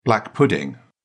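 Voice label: "black pudding", British English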